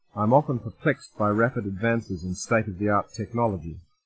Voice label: Denoised Speech